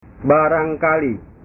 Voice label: "barangkali", bahasa